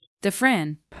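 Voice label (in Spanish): Voice Acting, Spanish, female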